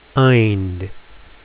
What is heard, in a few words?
ind, phonics